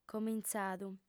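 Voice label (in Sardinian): "comintzadu", female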